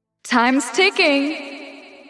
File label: Is Calling Rap